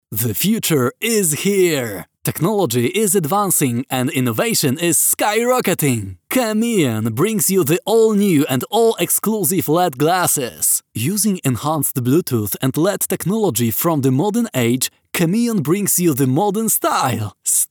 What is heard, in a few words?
english commercial